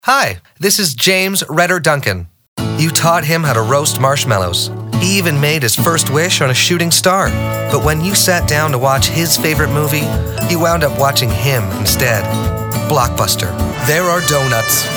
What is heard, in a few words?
commercial male